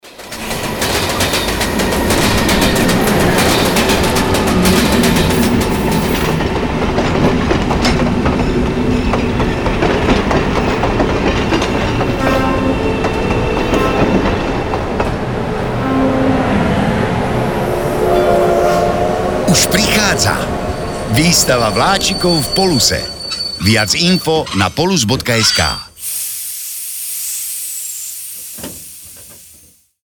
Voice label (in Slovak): radio